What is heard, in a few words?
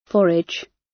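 "forage" British; Flora and fauna, agriculture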